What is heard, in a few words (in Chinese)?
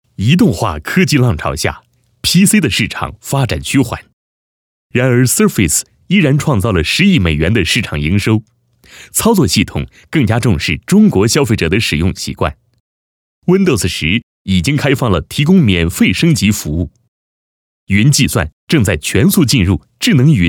男, 现代科技感; 在线风云